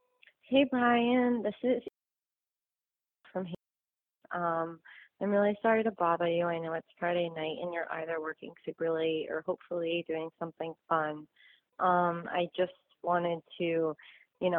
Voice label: Voicemail